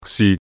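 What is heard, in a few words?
"si6", Cantonese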